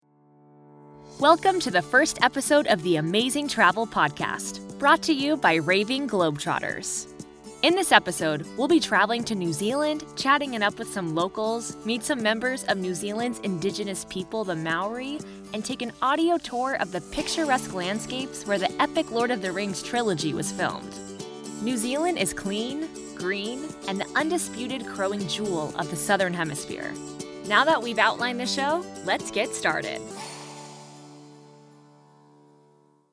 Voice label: english american podcasts; professionelle Synchronsprecherin

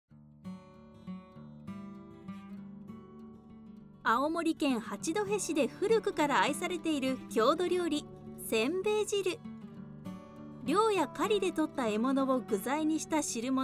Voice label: japanese e-learning

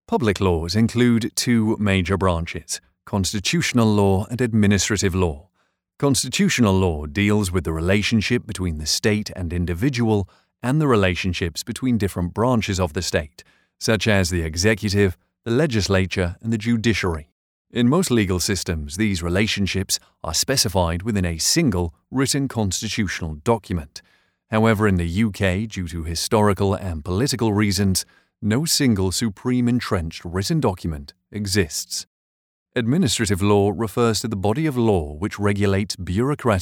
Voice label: english british e learning

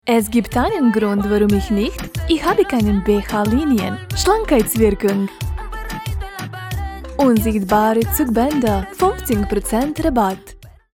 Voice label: german narration

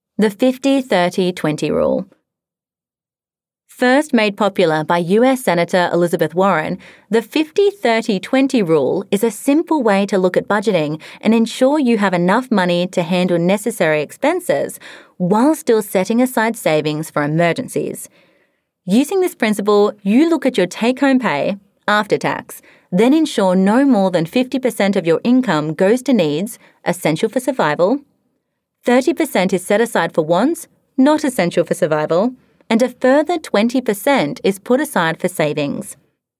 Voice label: english australian audiobooks

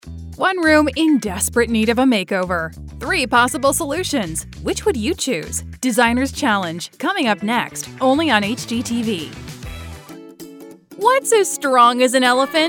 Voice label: english american promos

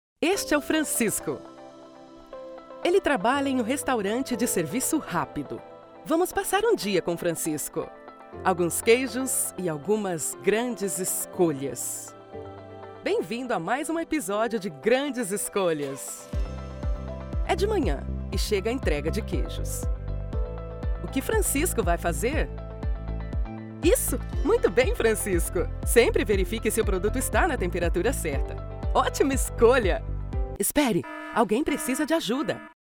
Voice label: portuguese brazilian animation

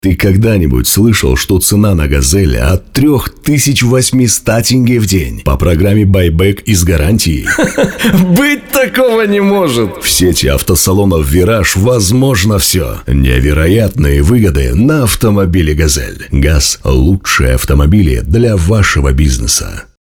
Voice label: Russian commercial

